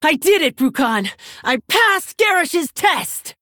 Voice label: Female Orc Victory

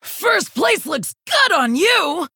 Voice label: Female Orc Emote First Place

